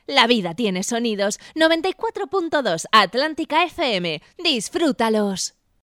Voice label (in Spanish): locutora